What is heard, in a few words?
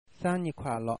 birthday, shanghainese